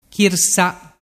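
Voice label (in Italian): "chirsa", dialect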